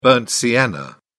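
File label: "burnt sienna", British English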